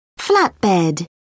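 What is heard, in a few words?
"flatbed", British English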